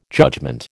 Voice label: "judgement", American